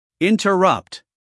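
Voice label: "interrupt", American English, male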